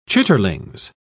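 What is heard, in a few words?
"chitterlings", English, British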